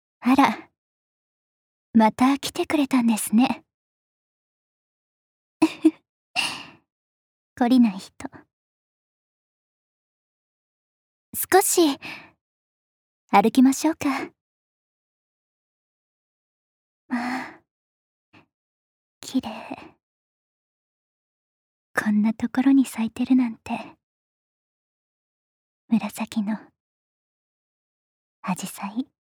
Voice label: lady; 女性